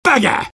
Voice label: negative vocalization; sniper; garrysmod; tf2-content-gmodcontent

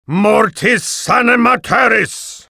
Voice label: spell zombie horde, spy; vo; tf2

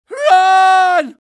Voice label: scared; medic

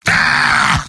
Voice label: heavy negative vocalization; tf2; mvm

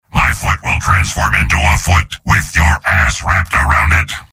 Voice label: soldier robot; mvm

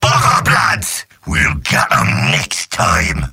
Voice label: jeers; demoman; mvm

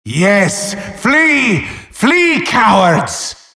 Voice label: wheel scared; halloween; merasmus

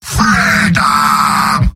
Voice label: battlecry, demoman; tf2; garrysmod; mvm

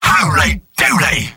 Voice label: sniper positive vocalization; tf2; mvm

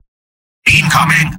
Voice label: spy incoming; mvm

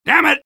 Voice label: negative vocalization; soldier; garrysmod; tf2-content-gmodcontent

